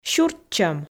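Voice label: "сюртчӧм", Komi, female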